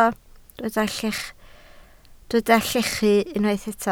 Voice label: "llechu", Welsh